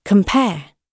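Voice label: "compare", British English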